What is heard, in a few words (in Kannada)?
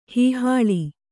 "ಹೀಹಾಳಿ", Kannada